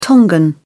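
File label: "tongan", British English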